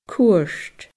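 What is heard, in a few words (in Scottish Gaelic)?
"cuairt", Scottish Gaelic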